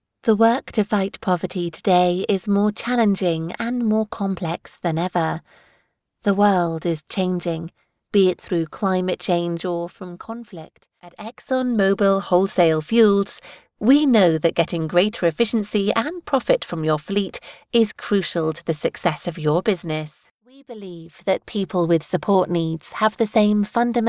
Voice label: english british documentaries

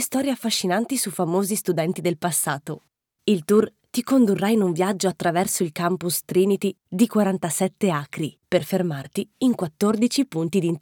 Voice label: italian audio guides

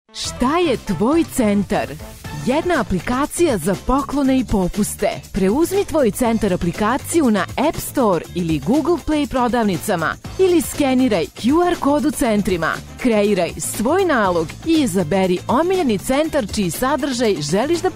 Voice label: Serbian promos

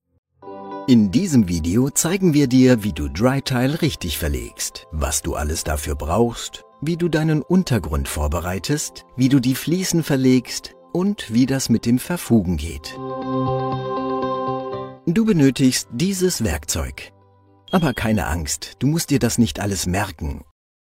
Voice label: german explainer videos; professioneller zweisprachiger Sprecher

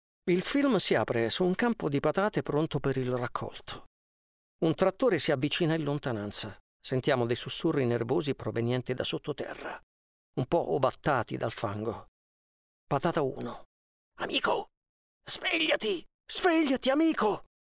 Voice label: italian tv shows

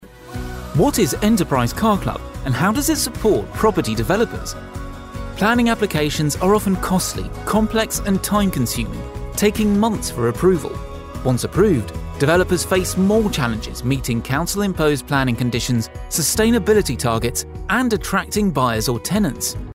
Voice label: english british corporate videos

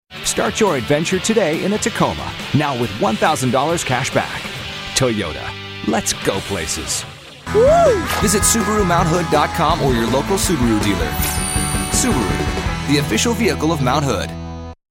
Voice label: english american automotive

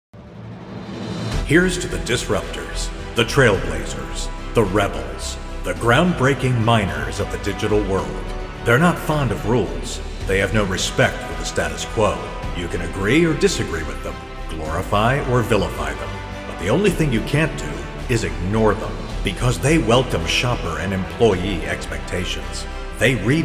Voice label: english american movie trailers; American voice actor

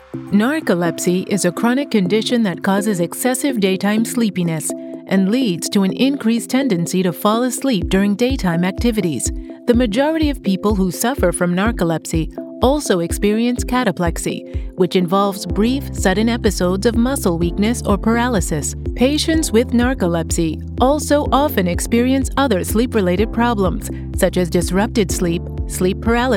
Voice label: english american medical narration

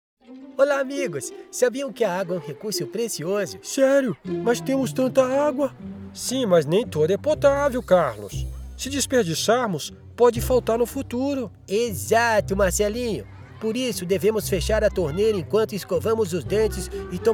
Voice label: portuguese brazilian impersonations; Portugiesisch (Brasilianisch)

